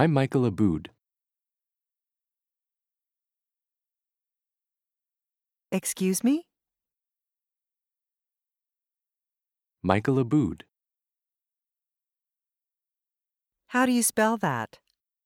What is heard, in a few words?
Pronunciation Practice; Connectivity Foundation